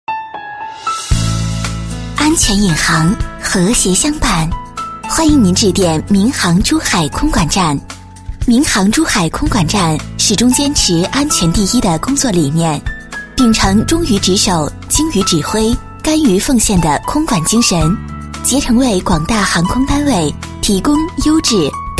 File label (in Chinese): 女, 彩铃女声; 空管彩铃确认版